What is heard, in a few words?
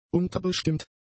"unterbestimmt", German; adjectives